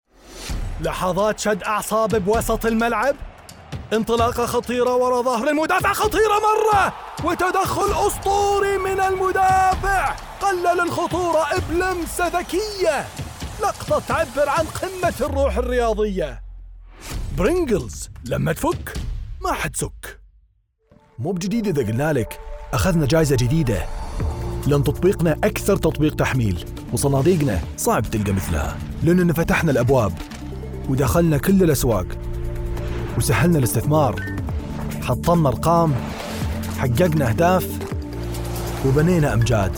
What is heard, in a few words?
Saudi Commercial